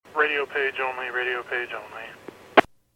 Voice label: PAGING